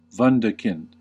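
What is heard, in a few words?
"wunderkind", US English; pronunciations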